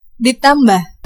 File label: "plus", id; gcompris